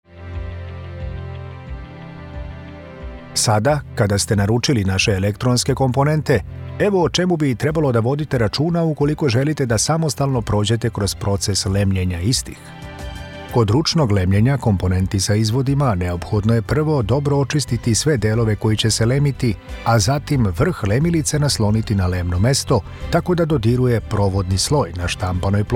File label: how to video serbia voice over narrator natural voice